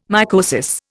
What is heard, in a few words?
"mycosis", Indian